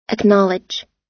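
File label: "acknowledge", American English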